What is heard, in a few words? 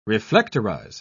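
"reflectorize", English, uk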